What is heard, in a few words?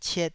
"ciet2", Taiwanese